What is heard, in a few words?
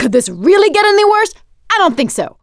reaction negative, survivor voice; producer